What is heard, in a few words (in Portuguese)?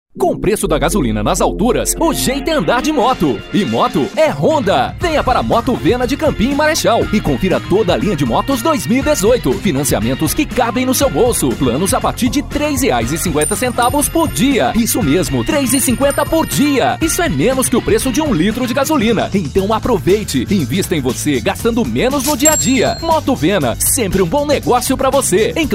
VAREJO JOVEM CONCESSIONARIA